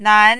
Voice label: "nan2", mandarin